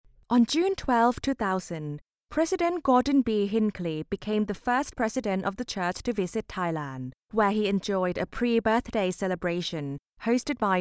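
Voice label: Thai female voice over, English; thai voice over talent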